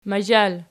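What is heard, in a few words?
"mazhal" nominative, Archi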